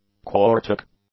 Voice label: "quartic", English; adjectives